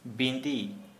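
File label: "spider", Santali, male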